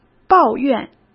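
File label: "抱怨", Mandarin Chinese; hsk; chinese-flashcards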